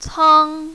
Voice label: "cang1", mandarin, female